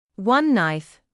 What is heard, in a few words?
"one knife", English